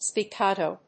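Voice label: "spiccato", English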